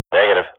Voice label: radio bot reponse negative, Counter-Strike swat

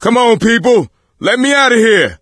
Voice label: call for rescue, voice; Left 4 Dead 2; coach

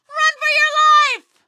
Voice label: run for your life, female; Garry's Mod; hl2

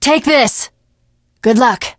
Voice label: finale gas, teengirl; Left 4 Dead 2

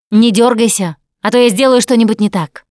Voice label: Heal Other, russian; Producer

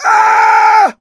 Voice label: incapacitated injury, voice; Left 4 Dead 2; coach

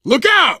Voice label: lookout, survivor voice biker; Left 4 Dead 2

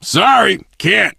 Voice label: initial meeting, survivor voice biker

